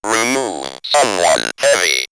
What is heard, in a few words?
Robot Someone Heavy, robot vox; X-Static Goldmine